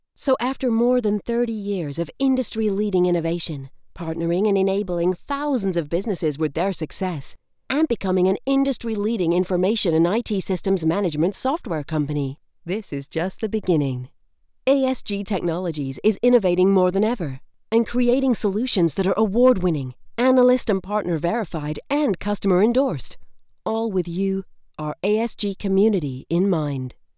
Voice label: Female American Corporate